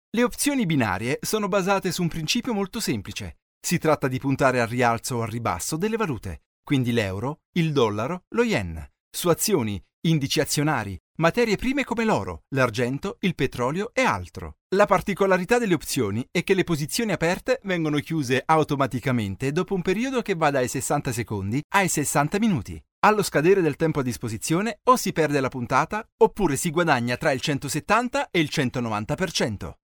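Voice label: Italian male voice over, upbeat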